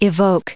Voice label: "evoking", us

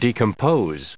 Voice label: "decomposition", en us

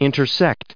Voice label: "intersecting", en us

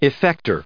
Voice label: "effector", us

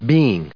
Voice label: "being", en us

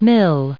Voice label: "mille", us

